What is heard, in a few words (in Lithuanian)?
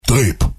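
"taip", Lithuanian; cstrike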